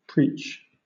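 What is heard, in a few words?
"preach", British English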